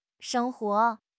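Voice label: "sheng1 huo2", Chinese; HSK; HSK3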